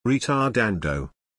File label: "ritardando", word